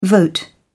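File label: "vote", British English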